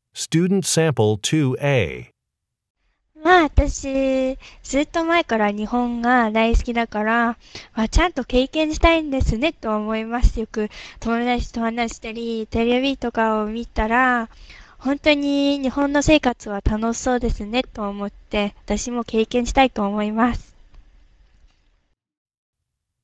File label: japanese conversation